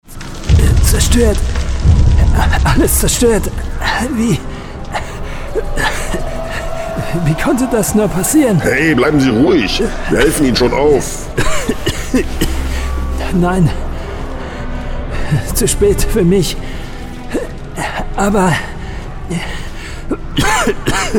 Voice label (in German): Hoerspiel